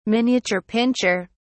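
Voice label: "miniature pinscher", British English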